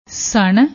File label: "snn", Punjabi; ਸਣ